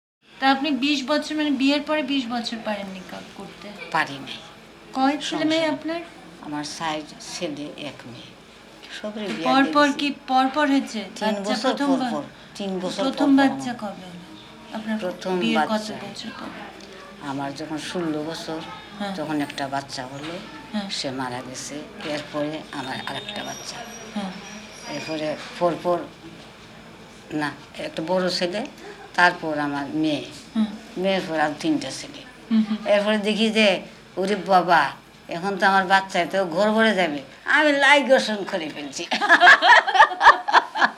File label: Conversation